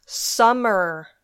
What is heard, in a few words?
"summer", English